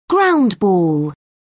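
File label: "ground ball", British English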